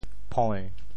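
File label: "phoi~7", Teochew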